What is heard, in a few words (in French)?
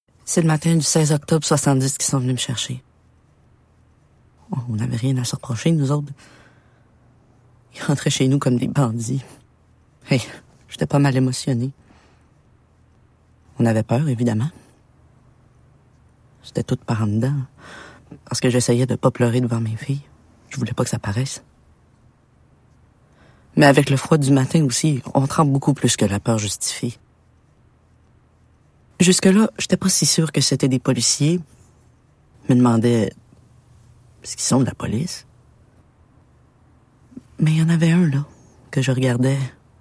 Narration; Les Ordres; Agence BD